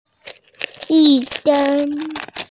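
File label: "yi1sheng1", Mandarin Chinese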